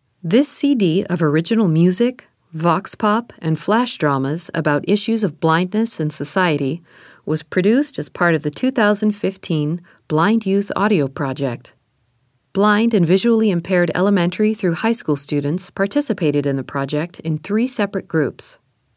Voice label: Opening Narration; Blind Youth Audio Project 2015